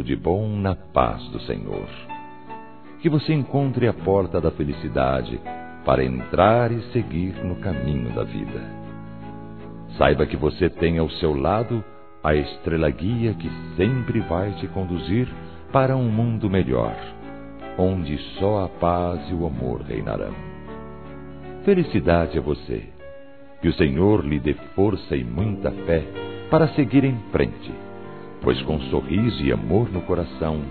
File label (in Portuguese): PARAB. IRMÃO EM CRISTO, Telemensagens, Gospel, masc